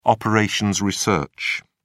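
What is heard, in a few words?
"operations research", British English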